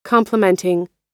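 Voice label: "complementing", American English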